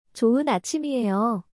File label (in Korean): "좋은 아침이에요", Korean; チョウン アチミエヨ